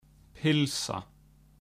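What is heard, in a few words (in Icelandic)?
"pylsa", Icelandic; matarhefti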